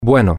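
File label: well, Spanish, male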